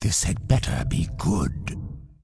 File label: Undead Male Warrior NPC Greeting